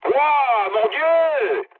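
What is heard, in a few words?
French trick mood med; War Thunder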